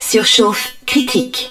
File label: HUD HEAT LEVEL CRITICAL, french; Battle Engine Aquila